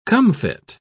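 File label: "comfit", British English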